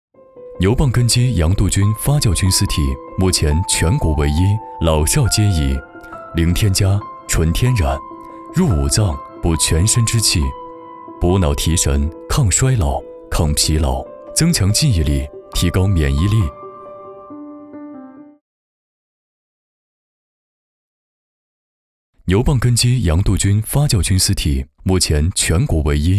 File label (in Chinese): 品牌宣传 清新自然; 牛蒡